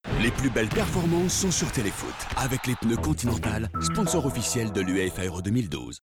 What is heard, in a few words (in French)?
BILBOARD, voix; CONTINENTAL